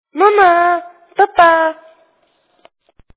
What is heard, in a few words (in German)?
Sprachnachricht